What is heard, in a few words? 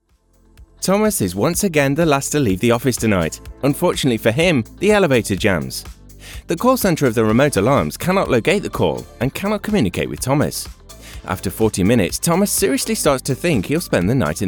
Corporate